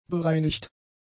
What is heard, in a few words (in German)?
"bereinigt", German; adjectives